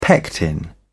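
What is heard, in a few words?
"pectin", British English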